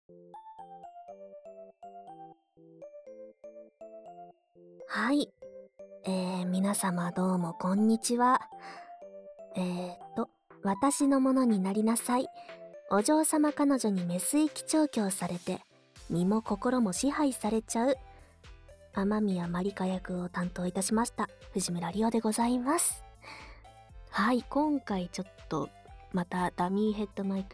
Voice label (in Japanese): フリートーク, ASMR; お嬢様彼女